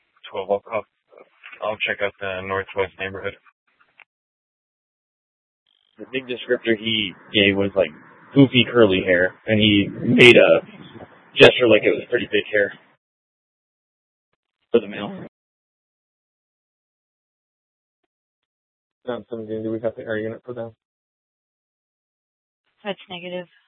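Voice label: Police Department Dispatch, scanner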